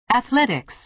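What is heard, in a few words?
"athletics", American English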